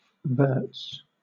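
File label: "verts", British English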